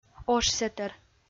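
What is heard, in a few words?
"ошсэтӧр", Komi, female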